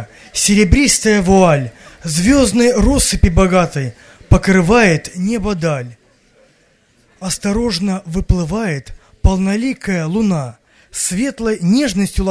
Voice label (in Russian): Стих (Восторг); Духом пламенейте